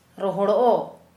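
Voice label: "dried", Kera Mundari, female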